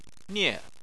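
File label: "nie" fourth tone, Chinese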